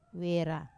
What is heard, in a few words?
"wera", marrow, Wamesa